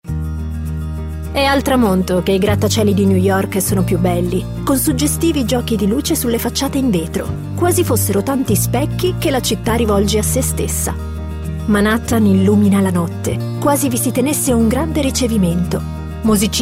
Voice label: documentari

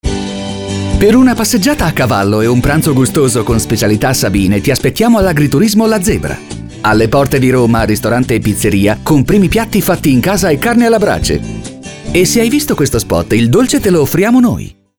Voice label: spot

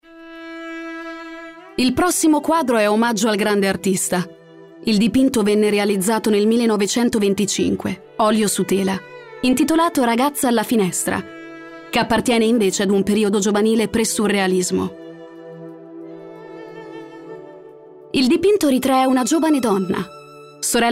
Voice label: audioguide

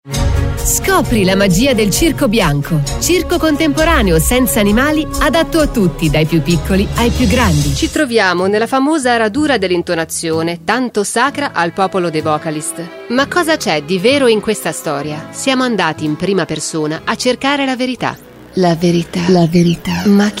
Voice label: spot

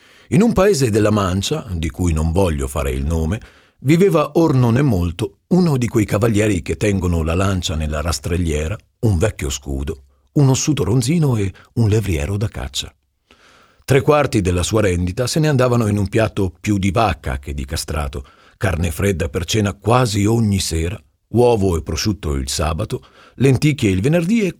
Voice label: audioibri

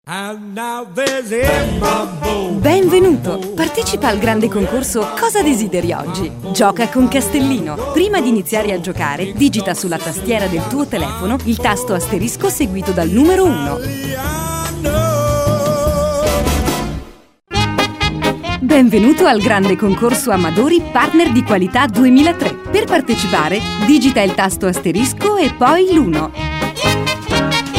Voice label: segreterie

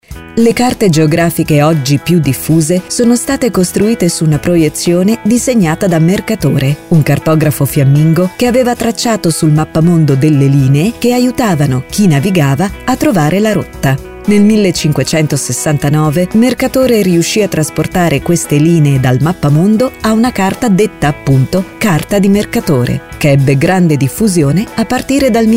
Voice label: documentari

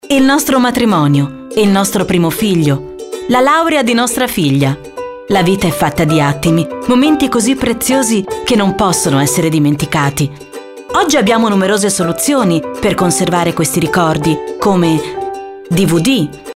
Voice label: documentario

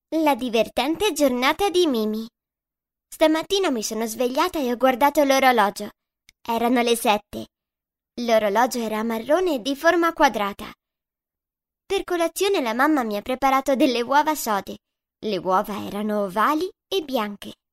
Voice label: audiolibri bambina

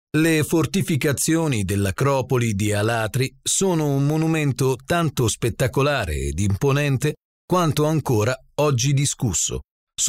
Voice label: audioguide

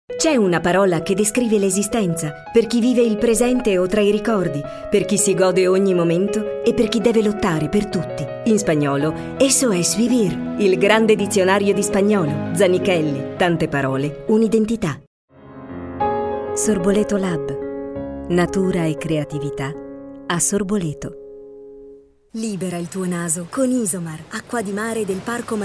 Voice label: spot nazionali

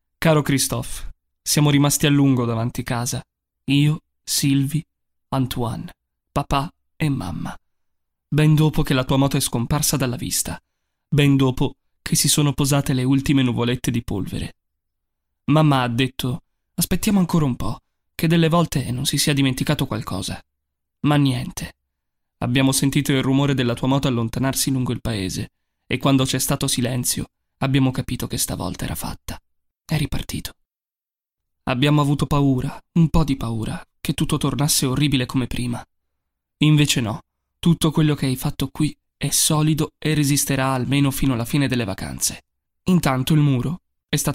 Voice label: audiolibri

